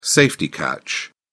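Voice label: "safety catch", English, British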